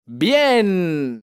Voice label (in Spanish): "bieen", interjeciones; Squeak en el Aula; Interjecciones 1